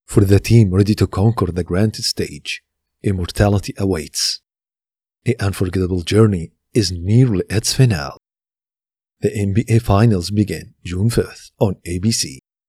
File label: commercial; 2025 nba finals